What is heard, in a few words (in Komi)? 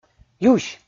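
"юсь" Komi, male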